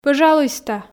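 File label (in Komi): "пӧжалуйста", Komi, female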